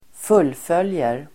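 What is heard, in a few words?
"fullföljer", Swedish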